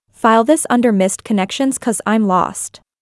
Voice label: Chat